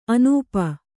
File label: "ಆನೂಪ", Kannada